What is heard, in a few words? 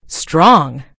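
"strong", American English; gcompris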